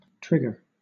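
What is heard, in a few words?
"trigger", British English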